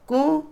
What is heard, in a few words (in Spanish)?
hermano(del padre), Otomí, mujer; Parentesco; Vocabulary; INALI